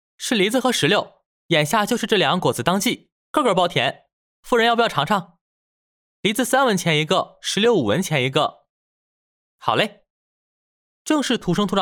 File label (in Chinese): 少年